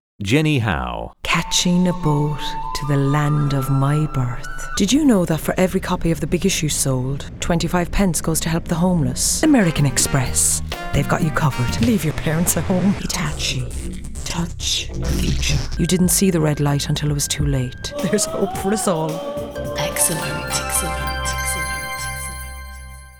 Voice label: VOICE OVER SHOWREEL